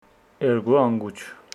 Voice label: Homshetsi two ears

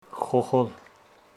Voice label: Homshetsi plant

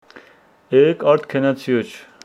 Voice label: Homshetsi yesterday i did not go to the field

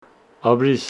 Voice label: Homshetsi thanks

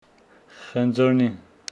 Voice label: Homshetsi apple tree

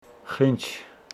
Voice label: Homshetsi mucus

